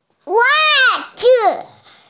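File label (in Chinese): "wa4zi5", Chinese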